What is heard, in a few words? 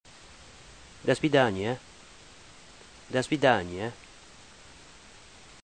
russian